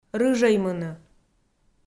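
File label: "рыжӧймыны", Komi, female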